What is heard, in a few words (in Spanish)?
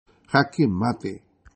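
"jaque mate", Spanish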